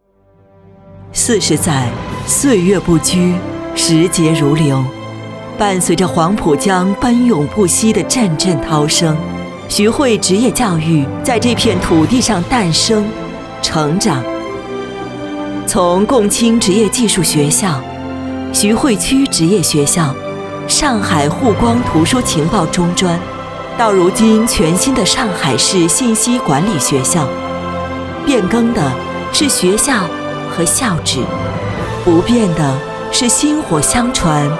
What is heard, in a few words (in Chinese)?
女 专题; 信管学校